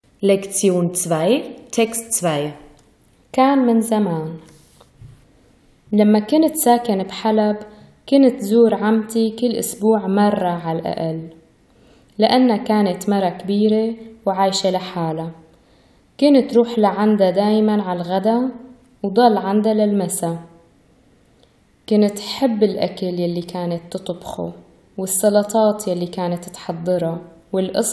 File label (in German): syrisch-arabischer Dialekt; Es ist lange her; kān mǝn zamān; Die Syrisch-Arabische Republik